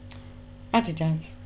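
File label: "Adidas", British, female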